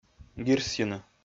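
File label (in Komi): "гирсйыны", Komi, male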